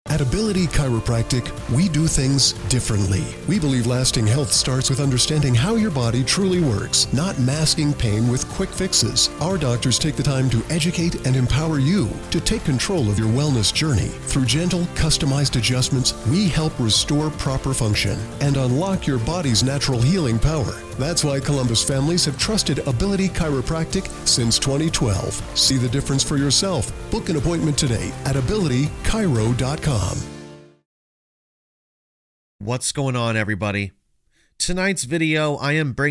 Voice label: petrifying desert horror stories desert scary stories rain sounds; black screen for sleep